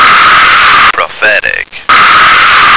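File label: "prophetic", American English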